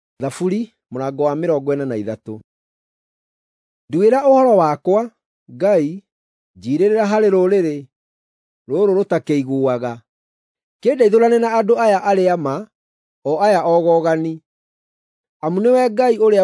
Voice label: psalms, gikuyu drama; bible

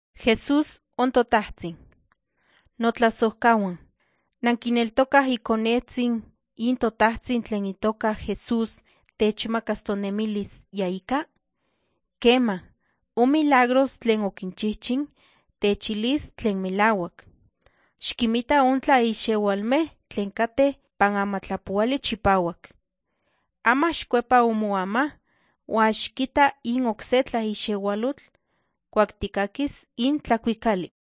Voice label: nahuatl guerrero tlapa; the bible league nt drama

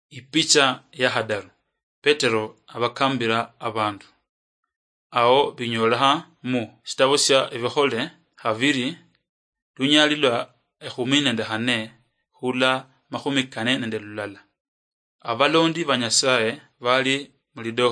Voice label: acts of the holy spirit luyia marachi, drama; oluluyia; bible

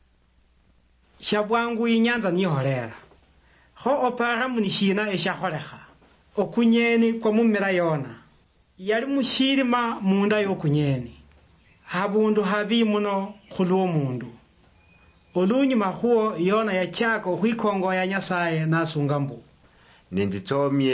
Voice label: words of life luhya lunyore, drama; luo bsk nt drama

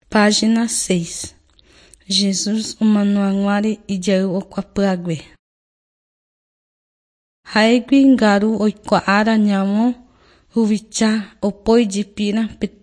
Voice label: guarani mbya; mark portions

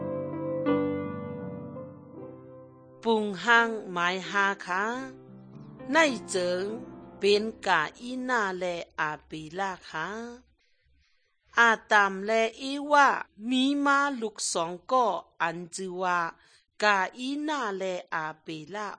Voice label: good news shan eastern, drama

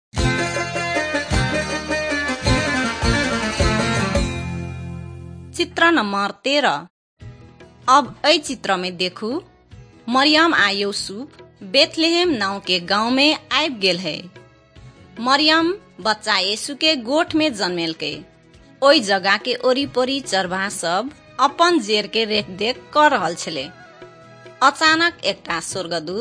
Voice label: maithili thetiya; wycliffe bible translators inc nt drama; banhiyaa khabar good news

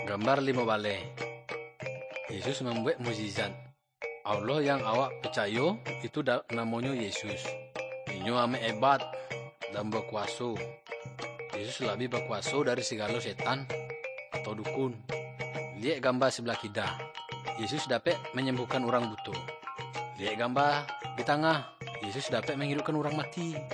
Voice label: good news minangkabau muko muko; kaba ilo

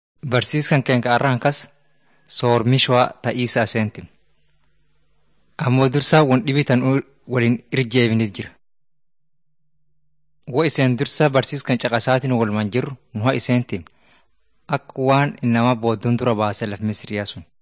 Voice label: teaching tape orma; bible lessons

